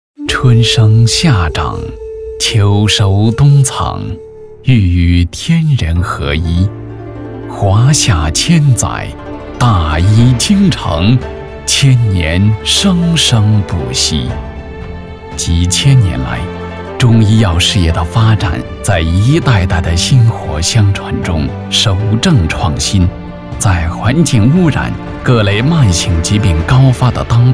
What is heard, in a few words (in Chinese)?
中医药文化悠扬; 中医发展